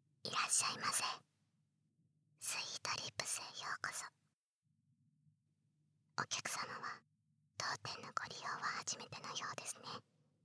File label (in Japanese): ささやき リフレ